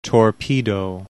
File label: "torpedo"